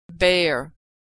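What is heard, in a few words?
"bear", American English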